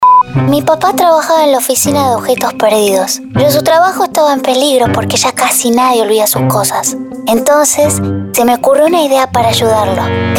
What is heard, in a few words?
Acting